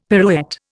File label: "pirouette", Indian; Dictionary